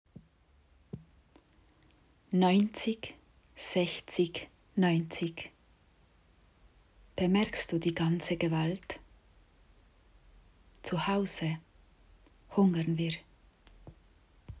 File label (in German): Dütsch